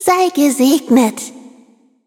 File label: loading, de; cookierunwiki